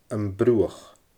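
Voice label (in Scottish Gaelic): "Am Bruthach", Scottish Gaelic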